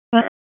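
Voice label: Police Southwest District Dispatch, p25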